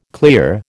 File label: "clear", American